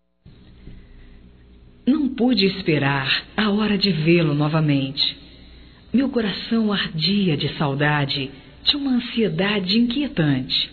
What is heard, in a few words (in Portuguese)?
BOA TARDE ROMâNTICA; Bom dia, tarde e noite; Telemensagens 2017